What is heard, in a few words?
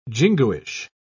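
"jingoish", British English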